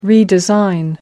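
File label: "redesign", English, British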